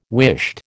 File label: "whisht", American